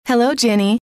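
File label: "hello", female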